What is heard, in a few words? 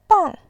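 "bang", Mandarin Chinese, fourth tone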